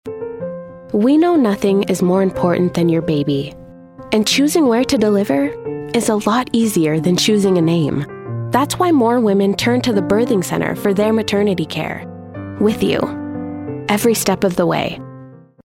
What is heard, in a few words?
female English USA birthing centre commercial